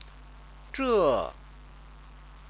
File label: "zhe", Chinese